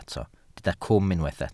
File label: "cwm", Welsh